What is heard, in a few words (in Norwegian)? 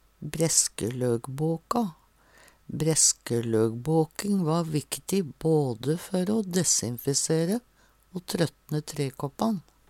breskelogbaka, Numedalsmål